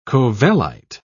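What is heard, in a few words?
"covellite", British English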